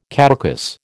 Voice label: "caducous", American